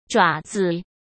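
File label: "爪子", Mandarin Chinese; hsk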